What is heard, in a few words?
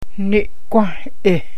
Misty, Gwich'in; Ne'gwąh'eh